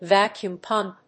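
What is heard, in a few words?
"vacuum pump", English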